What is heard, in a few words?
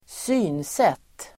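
"synsätt", Swedish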